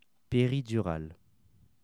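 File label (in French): "peridurale", French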